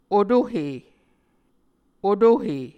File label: "otohe", Muscogee